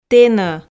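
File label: "тэно", Komi, female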